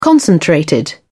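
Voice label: "concentrated", British English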